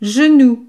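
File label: "genou", French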